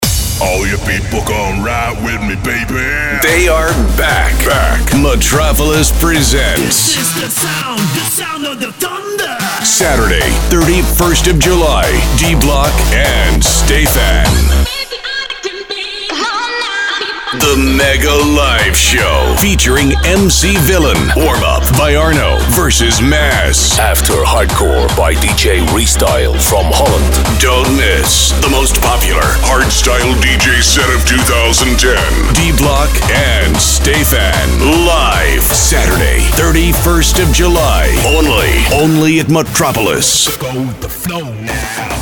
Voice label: party commercial; metropolis restyle